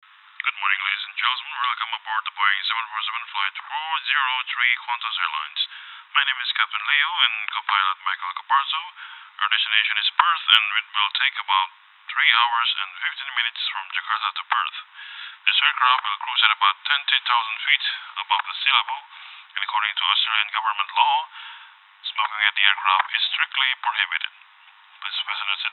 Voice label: ENGLISH FORMAL, A has a deep voice, very suitable if you are using it in a business or corporate voice